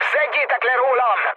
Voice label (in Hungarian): aircraft damaged mood med, hun; speech; War Thunder